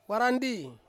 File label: "warandi", Ansus; nail